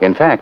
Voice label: in fact, announcer